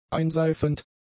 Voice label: "einseifend", german; adjectives

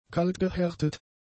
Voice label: "kaltgehärtet", German; adjectives

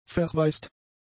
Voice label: "verwaist", German; adjectives

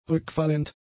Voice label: "rückfallend", German; adjectives

